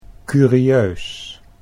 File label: "curieus", Dutch